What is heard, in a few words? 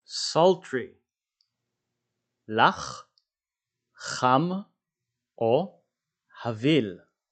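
"sultry" English, "לח חם הביל" Hebrew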